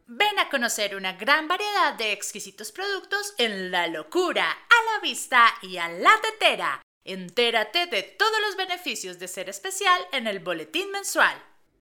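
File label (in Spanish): comercial; la tetera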